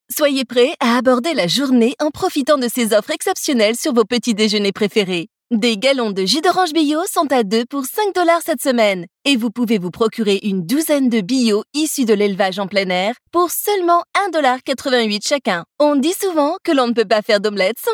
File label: Casual Conversational